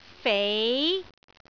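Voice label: "fei2", Cantonese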